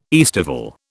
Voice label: "aestival", British